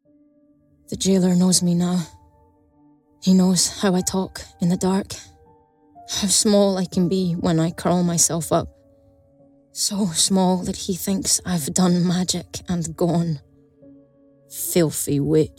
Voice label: Drama; home studios